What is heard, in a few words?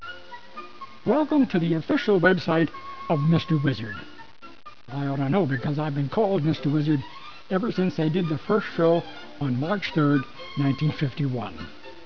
Voice Over with Theme Music Mono; Low Res